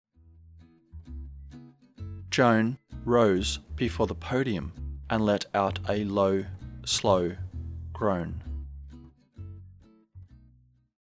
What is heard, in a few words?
slow, diphthong əʊ as in home; practice activity sentences